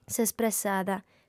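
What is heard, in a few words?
"s'espressada", female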